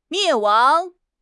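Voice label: "灭亡", Mandarin Chinese; HSK6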